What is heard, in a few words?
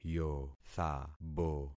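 "yothabo", concatenated syllables; Affixing experiment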